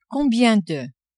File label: "combien de", French; adverbs